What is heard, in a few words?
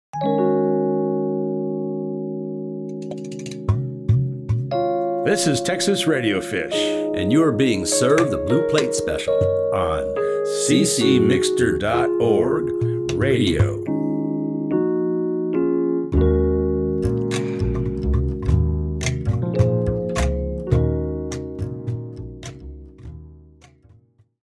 Promo